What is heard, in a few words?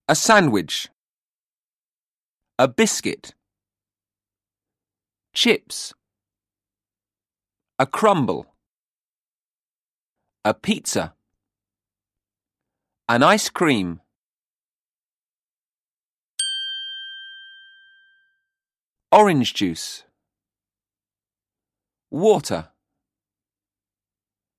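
english radio 4 food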